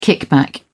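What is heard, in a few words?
"kickback", British English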